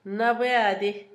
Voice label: oreado, Zapoteco, Asunción Ocotlan; Adjetivos; INALI; Haspelmath

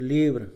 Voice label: "libro", Mixe, hombre; Habla

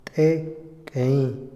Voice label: "respetar", Otomí, hombre; INALI

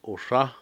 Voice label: "niebla neblina", Cucapá

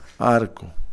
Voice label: arcoíris, Kakchikel

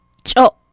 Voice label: "ch'o'" Maya, ratón

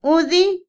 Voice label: mostrar, Otomí, mujer; Percepción; INALI

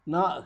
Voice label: "ayer", Zapoteco Magdalena Ocotlan; Adverbios; INALI; Haspelmath

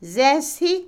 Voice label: sandalia, huarache, Otomí, mujer; Ropa; INALI

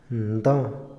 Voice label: gobierno, Otomí, hombre; Moderno; INALI

